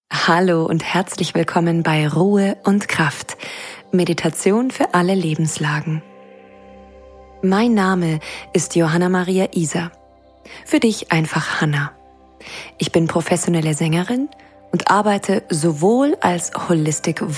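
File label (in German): geführte Meditationsreise; Ruhe und Kraft; Meditation für alle Lebenslagen; Julep Studios; Entspannung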